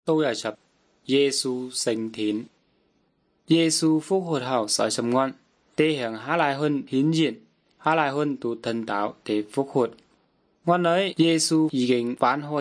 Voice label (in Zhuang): good news zhuang nanning fushu